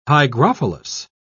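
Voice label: "hygrophilous", British English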